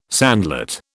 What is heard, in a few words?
"sandlot", British